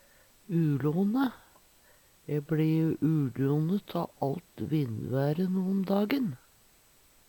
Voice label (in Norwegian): "ulone", Numedalsmål